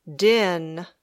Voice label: "din", English

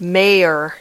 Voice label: "mayor", English

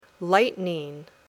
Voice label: "lightening", English

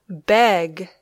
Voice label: "beg", English

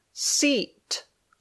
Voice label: "seat", English

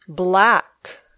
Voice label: "black", English

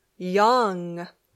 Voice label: "young", English

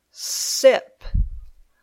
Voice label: "sip", English

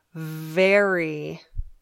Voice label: "very", English

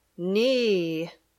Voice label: "knee", English

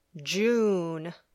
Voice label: "June", English

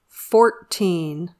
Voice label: "fourteen", English

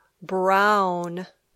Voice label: "brown", English

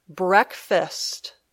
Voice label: "breakfast", English

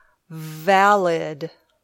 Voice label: "valid", English

